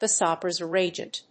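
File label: "vasopressor agent", English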